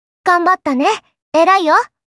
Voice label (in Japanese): Positive "Ganbatta", Kawaii Voice